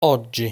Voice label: "Oggi", Italian

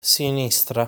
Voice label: "Sinistra", Italian

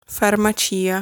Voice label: "Farmacia", Italian

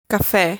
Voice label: "Caffè", Italian

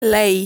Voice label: "Lei", Italian

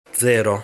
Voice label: "Zero", Italian; lesson 5 pronunciation

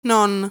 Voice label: "Non", Italian

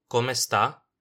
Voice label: "come sta", Italian

